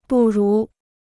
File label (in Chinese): "不如", Chinese